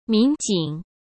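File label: "民警", Mandarin Chinese; hsk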